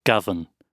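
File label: "govern", British English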